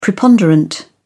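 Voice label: "preponderant", British English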